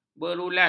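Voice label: "berulas", Malay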